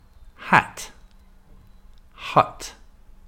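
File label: "hat" "hut", pronunciation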